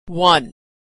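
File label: "won", American English; verb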